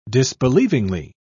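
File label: "disbelievingly", British English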